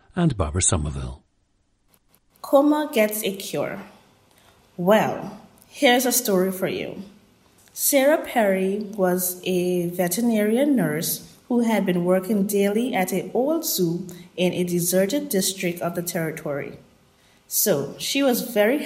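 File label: anguilla, dialects of English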